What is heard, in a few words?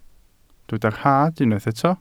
"rhad", Welsh